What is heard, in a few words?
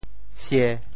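"sie1", Teochew; 潮州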